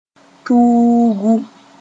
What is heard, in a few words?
"tuugu", Moore